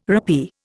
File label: "rappee", Indian